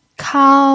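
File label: "kao" first tone, pinyin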